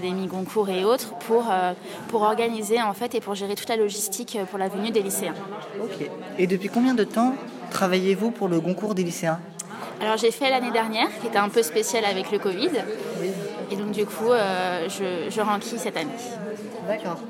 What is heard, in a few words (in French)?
interview bruit de lire